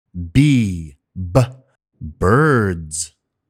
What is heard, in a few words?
B Birds, pronunciation; Island; phonics; アルファベットアイランド